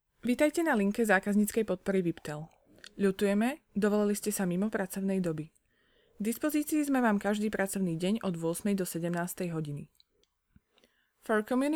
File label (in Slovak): hlas zena; VIPTel